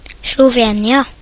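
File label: "Slovenia", Norwegian; gcompris; geography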